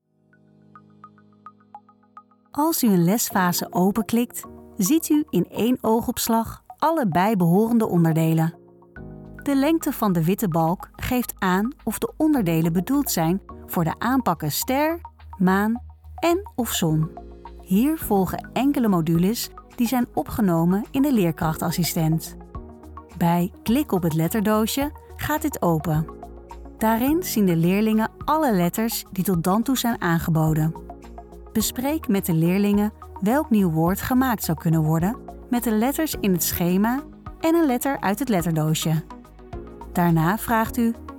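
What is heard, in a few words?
Dutch elearning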